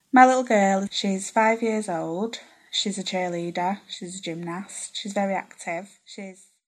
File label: SALFORD FEMALE aged 30